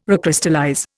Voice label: "recrystallize", Indian